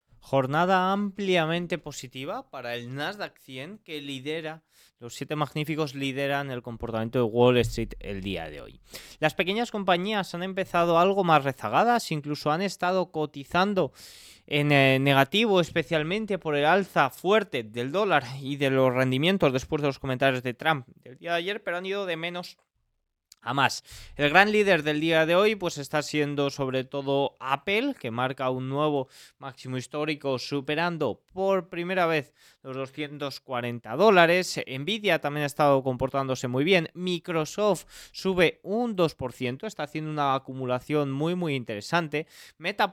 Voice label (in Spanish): radio; noticias bolsa